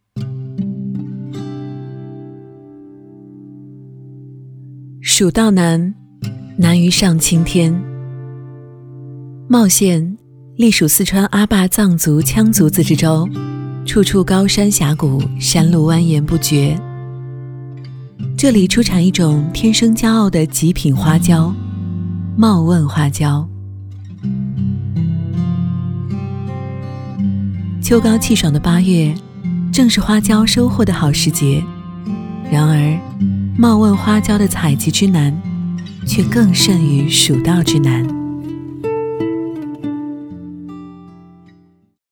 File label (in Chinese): 女 纪录片 讲述; 茂汶花椒